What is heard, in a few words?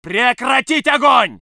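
voice soviet infantry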